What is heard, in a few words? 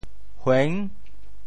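"hueng6", Teochew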